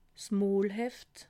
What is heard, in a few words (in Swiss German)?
"molheft", alsace